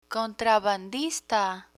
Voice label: "contrabandista", Spanish; crime vocabulary

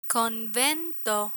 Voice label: "convento", Spanish; listening comprehension; learn spanish

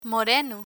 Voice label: "moreno" Spanish; learn spanish

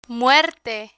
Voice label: "muerte" Spanish

